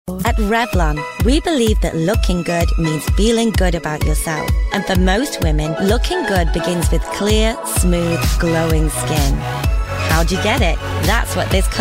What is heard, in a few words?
british commercial; BRITISH » Grounded and casual British accent.